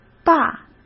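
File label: "坝", Mandarin Chinese; chinese flashcards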